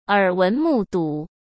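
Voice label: "耳闻目睹", Mandarin Chinese; hsk; chinese-flashcards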